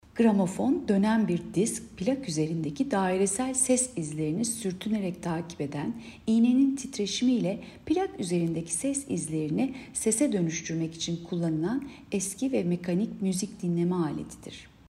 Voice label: turkce